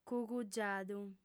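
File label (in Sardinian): "cugugiadu", female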